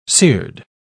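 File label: "cered", British English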